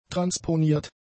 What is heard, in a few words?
"transponiert", German; adjectives